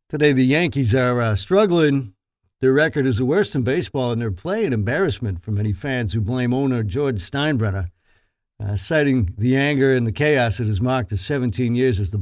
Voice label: New York Sports Caster